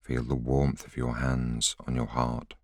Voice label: voiceover